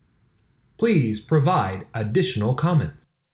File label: IVR SCRIPT ADDITIONAL COMMENTS